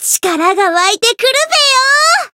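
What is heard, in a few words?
Voice growth, Japanese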